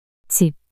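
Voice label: "집" Korean, female; 단어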